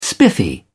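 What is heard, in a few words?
"spiffy", British English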